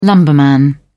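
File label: "lumberman", British English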